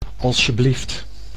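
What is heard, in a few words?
"alsjeblieft", Dutch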